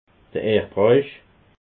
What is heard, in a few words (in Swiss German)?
"erdrauch", alsace